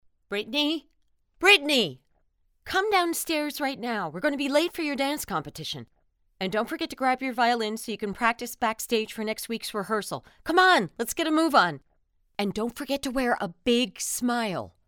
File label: Strict Mom Voice